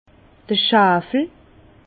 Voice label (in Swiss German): "schwawel", Bas Rhin; vin; soufre